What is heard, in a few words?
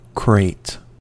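"crate", American English; pronunciations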